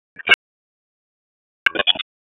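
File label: Raleigh Police North District Tactical, p25; wake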